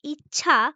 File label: Female